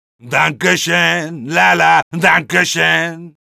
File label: positive vocalization; Medic